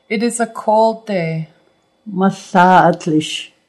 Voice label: It is a cold day, Nuu-chah-nulth